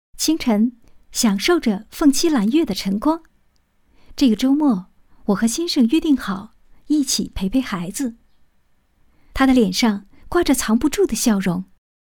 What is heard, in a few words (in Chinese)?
年轻 母亲 旁白